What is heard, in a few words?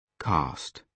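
"cast", British English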